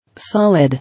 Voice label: "Solid", English

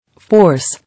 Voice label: "Force", English

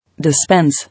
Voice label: "Dispense", English

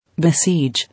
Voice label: "Besiege", English

